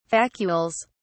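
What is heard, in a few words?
"vacuoles", British English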